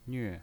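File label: "nve" fourth tone, pinyin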